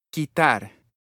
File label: "quitar", Spanish